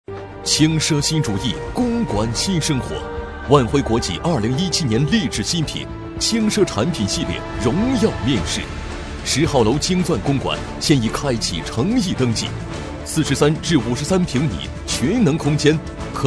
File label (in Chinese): 男 广告 地产宣传; 国际地产; 万辉